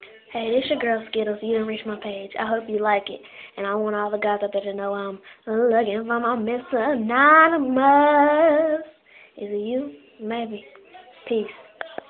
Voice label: kid girl peace, Voicemail Messages